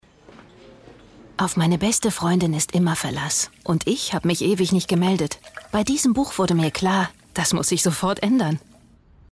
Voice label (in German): buchtrailer; anna mcpartlin die letzten tage von rabbit hayes